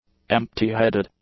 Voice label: "empty-headed", English; adjectives